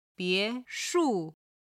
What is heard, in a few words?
"bie2shu4", chinese